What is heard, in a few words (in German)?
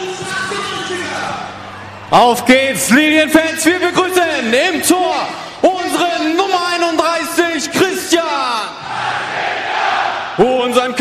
ansage aufstellung